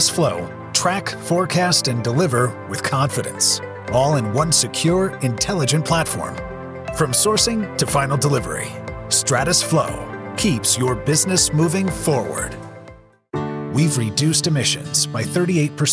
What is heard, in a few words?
Corporate Narration